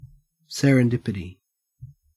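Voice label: "serendipity", Australian English; pronunciations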